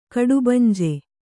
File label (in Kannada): "ಕಡುಬಂಜೆ", Kannada; kaḍubanje; noun